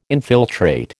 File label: "infiltrate", American